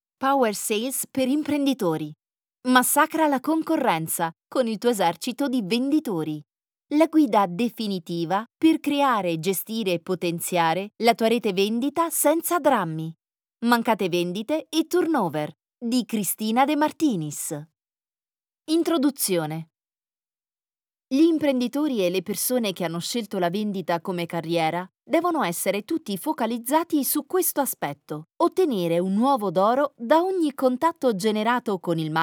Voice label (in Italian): audiolibro; massacra la concorrenza